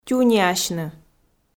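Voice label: "тюниасьны", Komi, female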